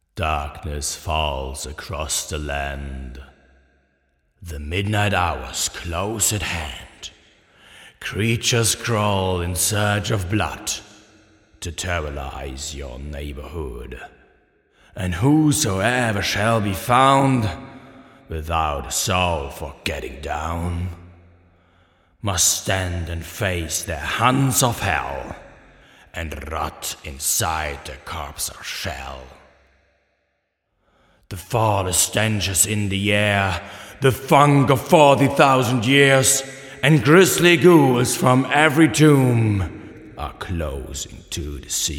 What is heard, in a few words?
thriller speech Left